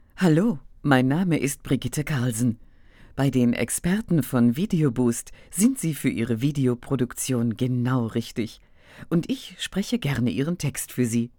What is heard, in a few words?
Voiceover